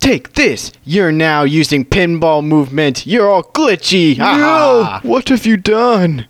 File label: Bad Vocals